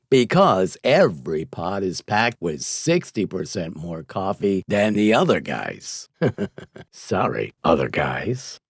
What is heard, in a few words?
COMMERCIAL; JAVA COFFEE